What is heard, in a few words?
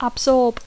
"absorb", English